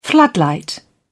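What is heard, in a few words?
"floodlight", British English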